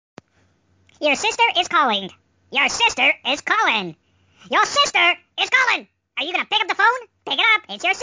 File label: your sister is calling funny voice tone ringtone